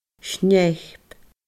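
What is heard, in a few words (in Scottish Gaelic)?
"snèip", Scottish Gaelic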